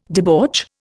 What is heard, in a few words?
"debauch", Indian